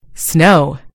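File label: "snow", American English; gcompris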